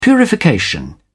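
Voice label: "purification", British English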